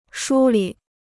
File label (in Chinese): "梳理", Chinese